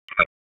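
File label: Raleigh Police North District Tactical, p25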